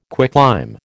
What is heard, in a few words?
"quicklime", American